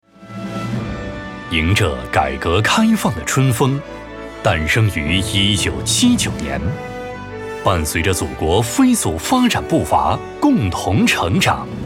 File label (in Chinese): 男 大气学校宣传片